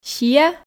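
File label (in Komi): "сиа", Komi, female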